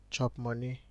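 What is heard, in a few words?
"chopmoney" Nigerian Pidgin, male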